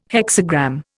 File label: "hexagram", Indian